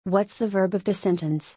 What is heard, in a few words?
whats the verb, en